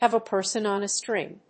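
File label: "have a person on a string", English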